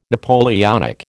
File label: "Napoleonic", American